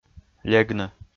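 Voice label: "легны", Komi, male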